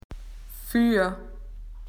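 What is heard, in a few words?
"in front", Australian English; PictureDictionary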